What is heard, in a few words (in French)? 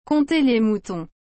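"compter les moutons", French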